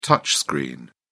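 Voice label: "touchscreen", English, British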